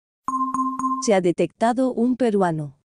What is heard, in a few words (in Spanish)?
detectado peruano